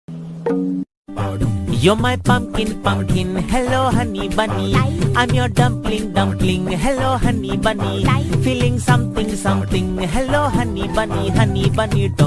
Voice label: hello hunny bunny funny ringtone